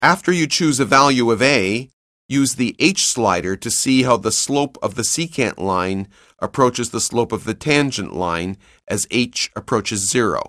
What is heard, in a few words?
narration; CALCULUS 7E; cengage